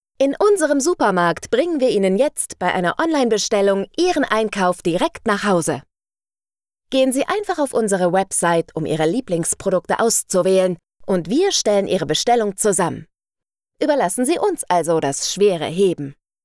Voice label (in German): Werbung markenneutral, Sprecherin